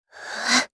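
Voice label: Vox Casting Japanese; Lorraine; kings raid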